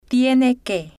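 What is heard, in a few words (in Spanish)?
tiene que, Spanish, female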